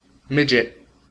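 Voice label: "midget", US English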